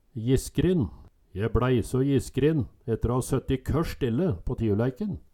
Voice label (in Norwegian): jiskrin, Numedalsmål